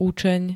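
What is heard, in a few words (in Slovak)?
"učeň", Slovak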